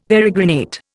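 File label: "peregrinate", Indian English; Dictionary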